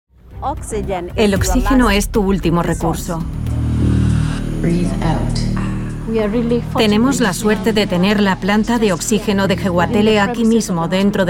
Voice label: Spanish tv shows